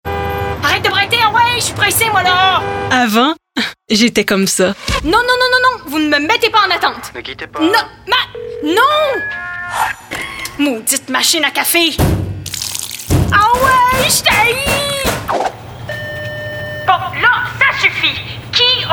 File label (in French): personnage humour fr qc naturel amical avec sourire energique adulte; nicorette